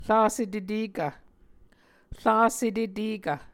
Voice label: "rasetetekv", Muscogee